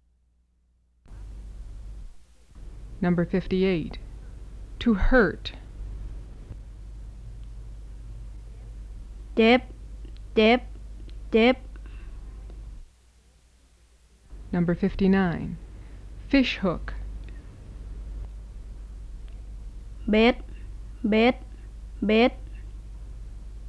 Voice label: Phuan